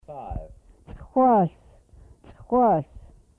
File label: "five", Twana